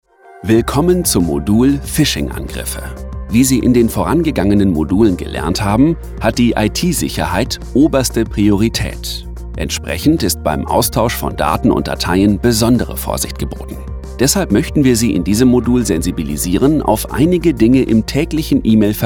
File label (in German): e-learning sensibilisierend serioes musik